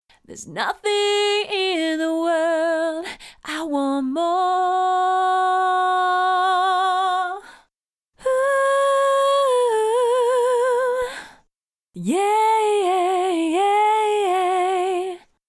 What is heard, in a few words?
pitchcorrect dry; Computer Music; cubase